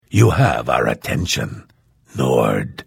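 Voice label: Hello, Vo; Morrowind GOTY GOG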